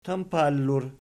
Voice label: "tampallur", Archi